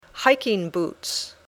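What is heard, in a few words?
"hiking boots", English; Sports/Hobbies (Deportes/Pasatiempos)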